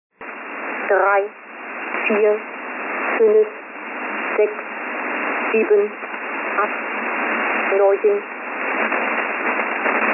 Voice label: 5284usb count